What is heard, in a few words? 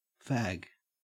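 "fag", Australian English; pronunciations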